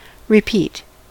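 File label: "repeat", American English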